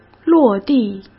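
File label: "落地", Mandarin Chinese; chinese-flashcards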